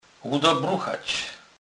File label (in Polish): "ludobruchac", kurpiow